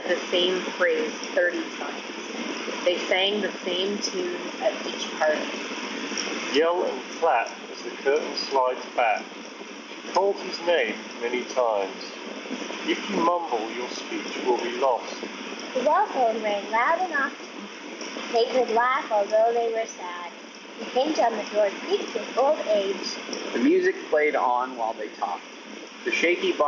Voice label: Bose Quietcomfort Ultra Earbuds Wind microphone sample